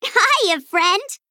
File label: Female Gnome Greetings